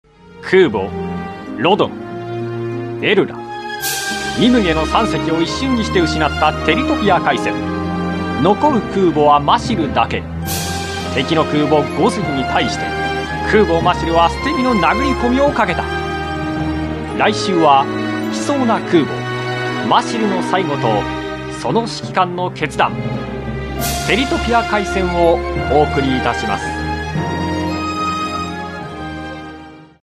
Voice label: ナレーションサンプル, 声質・デフォルト, 雰囲気・ドキュメンタリー; 険しき戦い

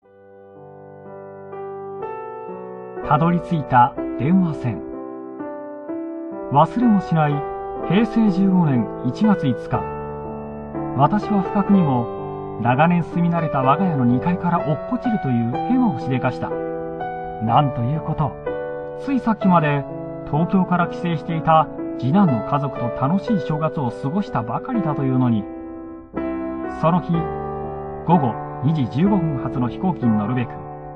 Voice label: 朗読サンプル, 声質・デフォルト, 雰囲気・暖かい; 電話で助かった命

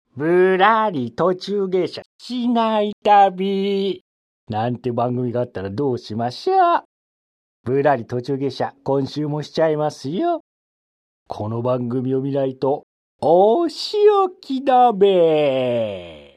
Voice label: 声真似, ナレーション; 声真似サンプル5 ぶらり途中下車の旅ナレーション・滝口順平さまイメージ; ※代表作としてナレーション、ぶらり途中下車の旅。アニメ、ヤッターマンのドクロベエ役等を行なわれた方です。

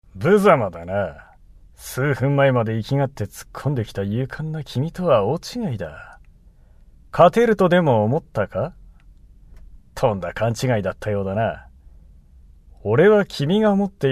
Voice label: セリフサンプル, 声質・低め, 雰囲気・相手を見下す

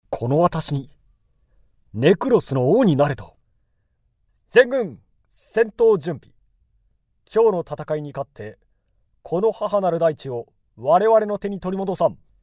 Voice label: セリフサンプル, 声質・高い, 雰囲気・聖なる騎士; 平和を求めて

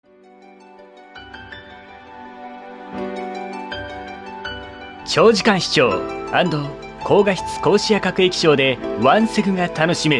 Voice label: ナレーションサンプル, 声質・デフォルト, 雰囲気・CM; NEW携帯電話発売！

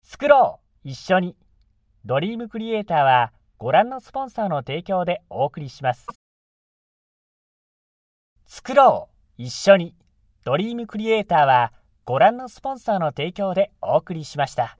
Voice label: ナレーションサンプル, 声質・高め, 雰囲気・番組提供案内; この番組はご覧の・・・～お送りしました！

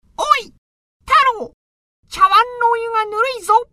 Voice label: 声真似; 声真似サンプル２ 目玉の親父・田の中勇さまイメージ; ※代表作としてアニメ、ゲゲゲの鬼太郎の目玉の親父役等を行われた方です。

